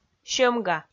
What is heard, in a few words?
"сьӧмга", Komi